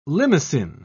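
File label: "limacine", British English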